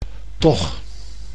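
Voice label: "toch", Dutch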